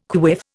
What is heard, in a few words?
"quiff", Indian